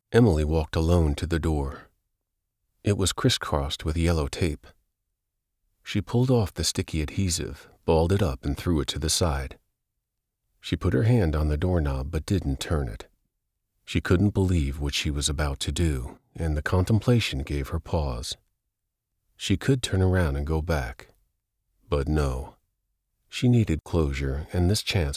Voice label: Audiobook; Dark Room Of Souls